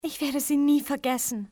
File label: thank, civ fmale; XBOX